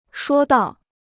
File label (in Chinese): "说道", Chinese